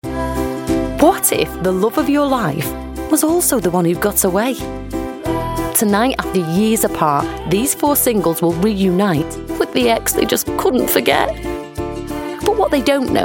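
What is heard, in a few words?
documentary, female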